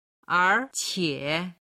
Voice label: "er2qie3", Chinese